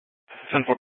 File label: Dispatch; Scottsdale PD; Nth Dispatch